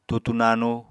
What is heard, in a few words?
"tutu nanu", apatani